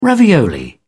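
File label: "ravioli", British English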